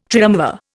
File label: "triumvir", Indian